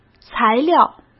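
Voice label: "材料", Mandarin Chinese; chinese-flashcards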